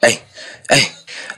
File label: "ay ay" male LOOPED